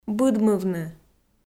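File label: "быдмывны", Komi-Zyrian, female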